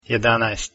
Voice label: 11 croatian, numbers